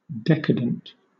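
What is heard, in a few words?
"decadent", British English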